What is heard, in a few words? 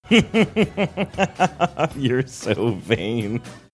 laughing